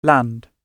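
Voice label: "land", British English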